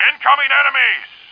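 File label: male incoming; Starsiege; Tribes Demo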